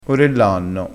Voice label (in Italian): "orellanno", crespinese